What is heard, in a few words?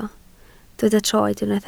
"troed", Welsh